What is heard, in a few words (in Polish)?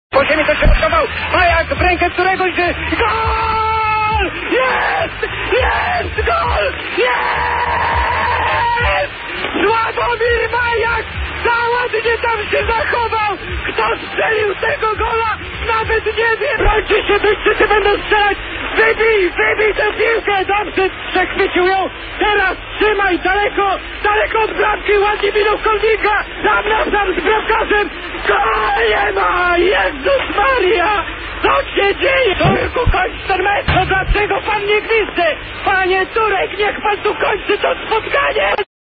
komentator sportowy